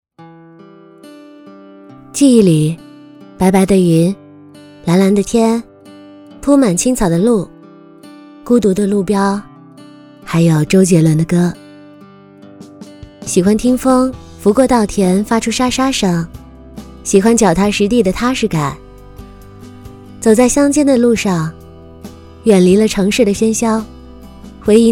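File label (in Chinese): 旁白 女 素人 小清新; 房琪音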